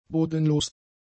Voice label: "bodenlos", German; adjectives

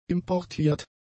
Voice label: "importiert", German; adjectives

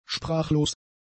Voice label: "sprachlos", German; adjectives

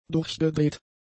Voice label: "durchgedreht", German; adjectives

